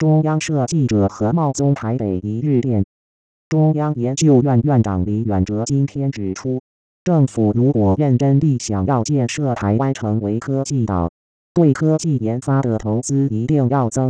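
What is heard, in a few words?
Synthetic Speech, male, News reporting, Mandarin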